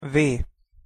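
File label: "v", Hungarian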